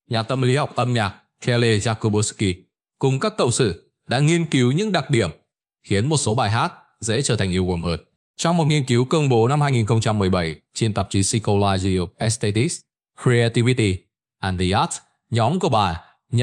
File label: narration; Vi Sao Mot Bai Hat Co The Mac Ket Trong Dau Ban Ca Ngay; StickerMan